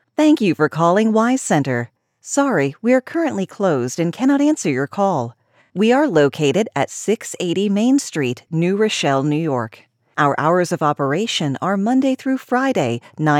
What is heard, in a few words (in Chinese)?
语音, 干音